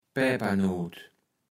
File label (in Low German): "Päpernoot", kaevels platt